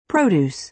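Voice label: "produce", American English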